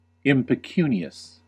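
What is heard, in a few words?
"impecunious", US English; pronunciations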